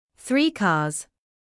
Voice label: "three cars", English